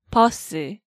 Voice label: "Beoseu", Korean